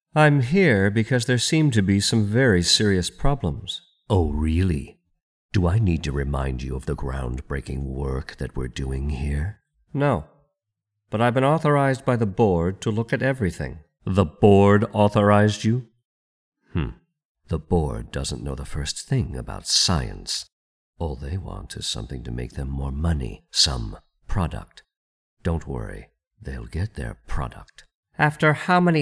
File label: Videogame Sci-Fi (both voices)